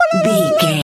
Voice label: voice fun character cute cartoon